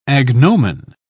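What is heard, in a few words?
"agnomen", British English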